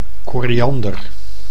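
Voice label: "koriander", Dutch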